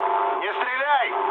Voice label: player friendly fire, mood low, speech ru; War Thunder

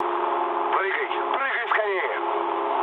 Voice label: bailout, mood low, speech ru; War Thunder

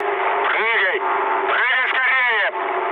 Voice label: bailout, mood low, speech ru; War Thunder

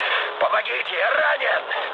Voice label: damaged pilot, mood med, speech ru; War Thunder

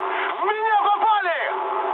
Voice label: aircraft damaged, mood high, speech ru

